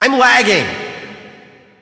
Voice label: hurt vo; 游龙错艾德加（荒野乱斗）; 东方传说